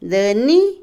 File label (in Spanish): flor, Otomí, mujer; INALI